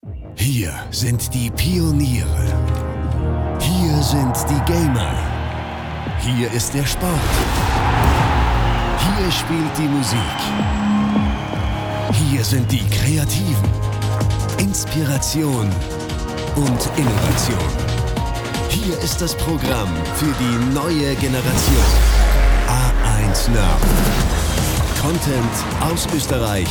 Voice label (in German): trailerstimme; a1 now